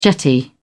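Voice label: "jetty", British English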